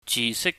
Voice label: "jisik", Cantonese